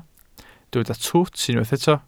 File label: "twt", Welsh